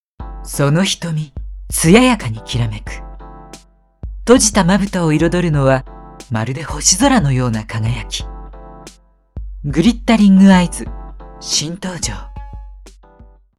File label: narration